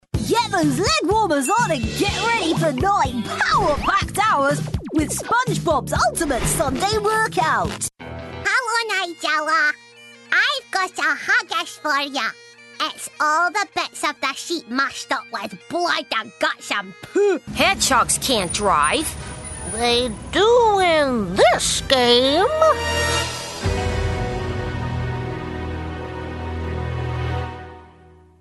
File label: character